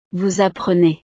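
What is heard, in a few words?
"vous apprenez", French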